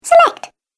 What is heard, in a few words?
Cartoon Game Voice "Select"